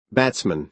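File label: "batsman", American English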